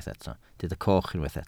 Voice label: "coch", Welsh